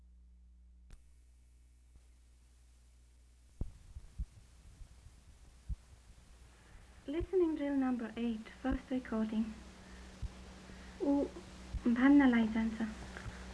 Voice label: Western Tamang